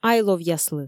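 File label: "айловъяслы", Komi, female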